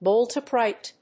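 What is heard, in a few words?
"bolt upright", English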